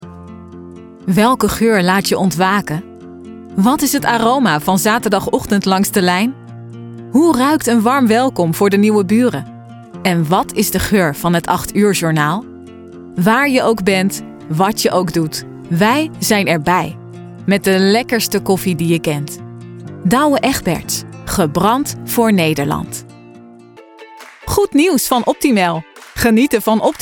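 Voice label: Dutch; voicereel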